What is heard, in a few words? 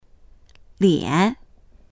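"lian3", Mandarin Chinese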